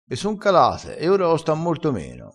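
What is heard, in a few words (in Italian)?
"gosta", crespinese dialettale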